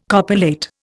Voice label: "copulate", Indian